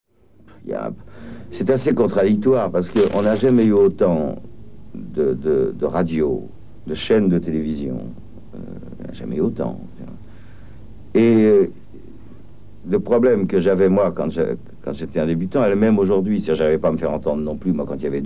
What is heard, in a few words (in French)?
Commentaire emissions varietes; Commentaires sur les émissions de variétés; A LA RADIO (ANNEES 1970)